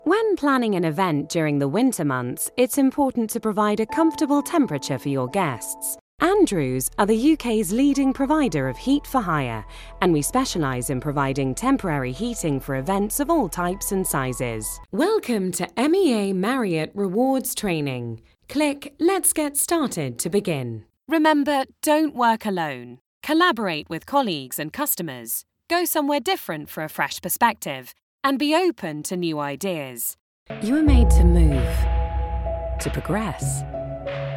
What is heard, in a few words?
corporate reel, voiceover